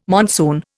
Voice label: "monsoon", Indian

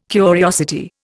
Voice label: "curiosity", Indian

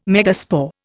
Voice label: "megaspore", Indian

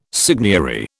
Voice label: "seigniory", British

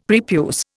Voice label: "prepuce", Indian; Dictionary

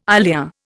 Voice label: "ilia", Indian

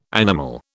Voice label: "animal", British

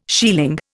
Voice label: "shieling", Indian

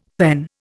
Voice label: "PEN", Indian; Dictionary

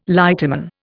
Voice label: "lighterman", Indian

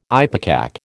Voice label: "ipecac", American

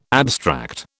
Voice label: "abstract", British

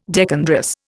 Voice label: "decandrous", Indian

